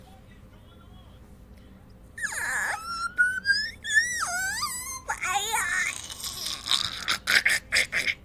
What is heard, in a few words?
ugly voice